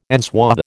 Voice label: "enswathe", American